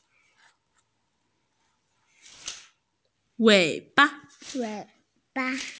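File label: "wei3 ba5", Mandarin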